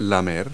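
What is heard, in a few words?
"lick", English word; Multi-Lingual Talking Picture Dictionary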